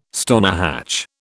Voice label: "stonehatch", British